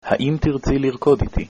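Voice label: dance, Hebrew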